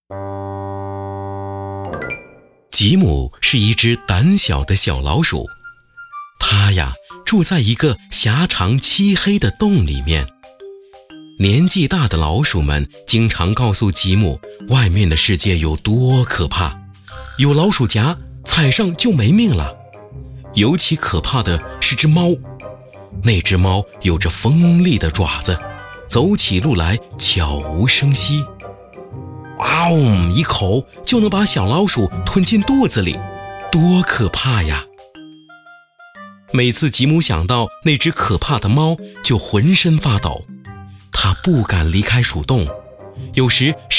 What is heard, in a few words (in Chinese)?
朗诵, 睡前小故事